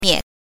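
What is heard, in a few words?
"mie", Mandarin Chinese pinyin, neutral tone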